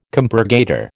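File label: "compurgator", American English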